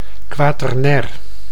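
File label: "quaternair", Dutch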